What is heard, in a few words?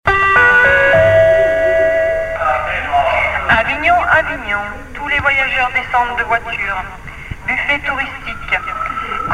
Message SNCF